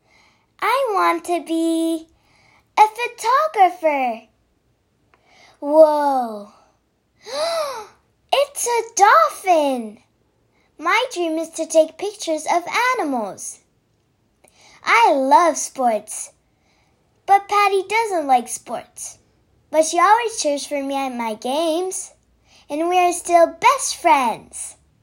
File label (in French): doublage